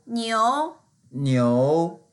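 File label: "niu2", Mandarin Chinese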